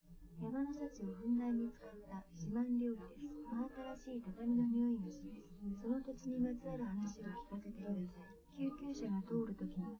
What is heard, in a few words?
female live rec; SiSEC10; underdetermined